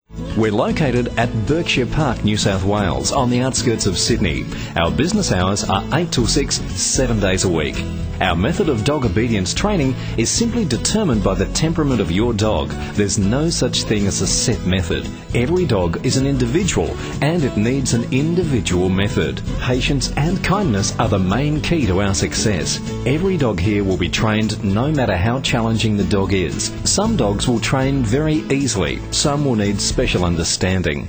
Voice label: Pets Voice Ad